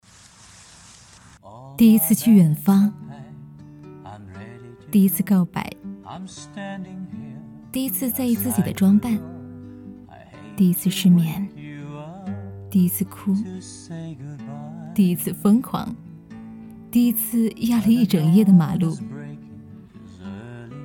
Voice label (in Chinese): 抒情旁白